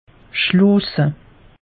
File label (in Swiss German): "schloose", alsace; meteo